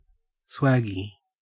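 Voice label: "swaggie", Australian English; pronunciations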